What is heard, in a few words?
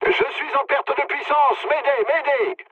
damaged engine mood low, speech fr; War Thunder